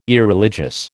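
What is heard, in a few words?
"Irreligious", American English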